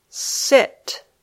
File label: "sit", English